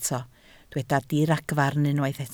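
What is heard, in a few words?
"diragfarn" Welsh, spoken word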